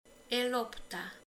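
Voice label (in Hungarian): "labda", Hungarian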